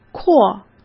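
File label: "阔", Mandarin Chinese; hsk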